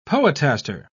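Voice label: "poetaster", British English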